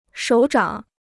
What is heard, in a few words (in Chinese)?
"手掌", Chinese